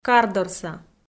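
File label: "кардорса" Komi, female; kpv audio dict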